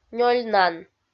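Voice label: "нёльнан", Komi, female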